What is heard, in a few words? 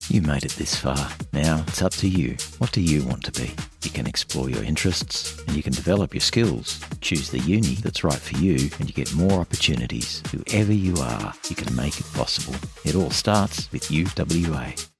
Radio Commercials, Deep, Authentic, Conversational, male; UWA